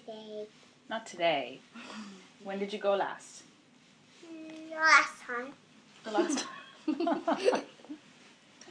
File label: Conversation School, Conversation, little girl talks about school with her aunt, very cute, sweet; funny, female, kid, child, human voice, speaking; Talking Sound Effects